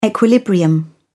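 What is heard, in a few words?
"equilibrium", British English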